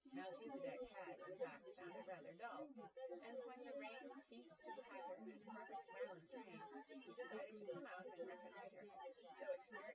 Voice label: female; synthconv 130ms 1m sim; SiSEC08; SiSEC underdetermined